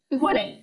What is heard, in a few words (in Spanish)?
Voice Acting es